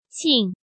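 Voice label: "Qìng", Chinese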